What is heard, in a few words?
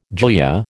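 "glia", American English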